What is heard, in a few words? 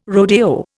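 "rodeo", Indian English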